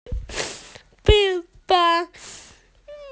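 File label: "mi4feng1", Mandarin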